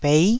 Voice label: "bei9", taiwanese